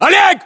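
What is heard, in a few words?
"oleg", battlechatter, Russian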